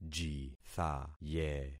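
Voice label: "jithaye", concatenated syllables; Affixing experiment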